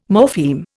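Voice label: "morpheme", Indian English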